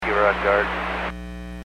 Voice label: Aviation 121.5 Emergency